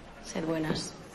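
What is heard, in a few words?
Voice Acting es Female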